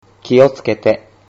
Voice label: "kiotsukete", Japanese